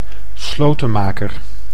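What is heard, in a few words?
"slotenmaker", Dutch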